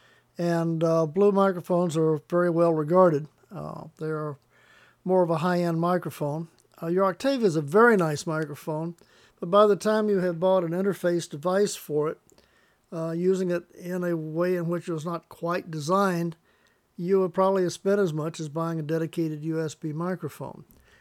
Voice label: recording audio computer usb mike

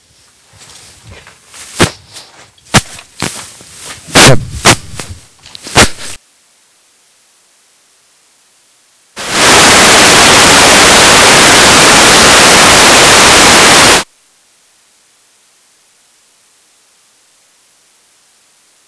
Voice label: Sennheiser ew100, mic test

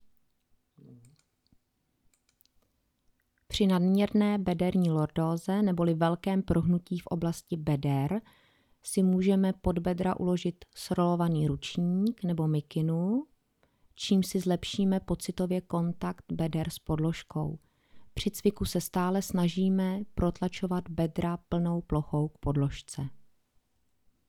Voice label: noise audio vo

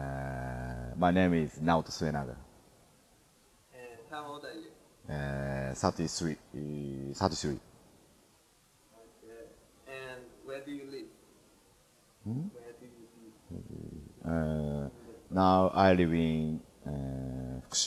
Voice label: interview noise

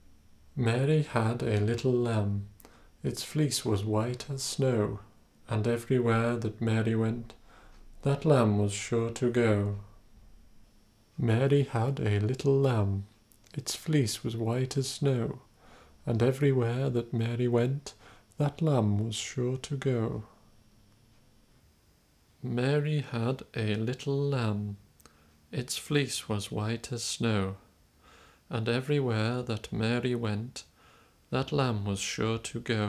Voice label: microphone sounds best audio tests; mc 012 vs at815b mixpre xh a1 20 inch range

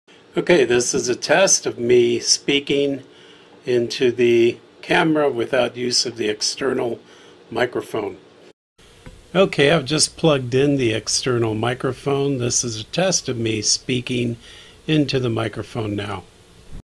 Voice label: audience mics sm58 test; canon

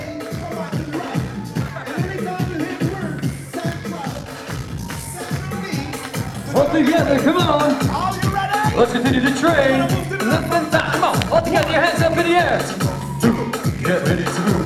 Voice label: mic test

